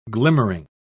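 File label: "glimmering", British English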